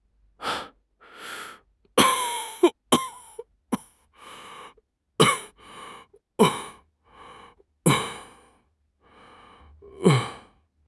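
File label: "jiao1", Mandarin Chinese; HSK3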